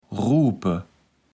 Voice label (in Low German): "rupe", Platt